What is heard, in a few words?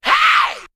"hey", Voice; ooh ahh